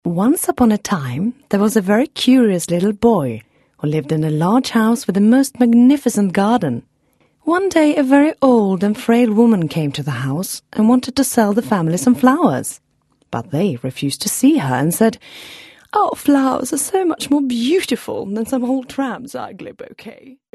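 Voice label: Swedish English, Scandinavian, Female, Home Studio, 20s-30s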